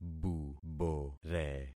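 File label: "bubore", concatenated syllables; Affixing experiment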